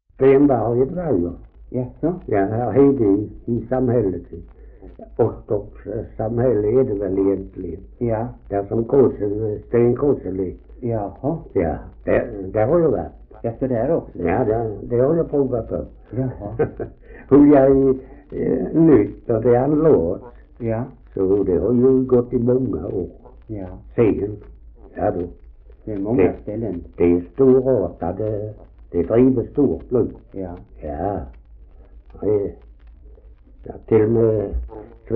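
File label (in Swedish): Ausås Skåne, Svenska sagor, dialekter; Folkminnen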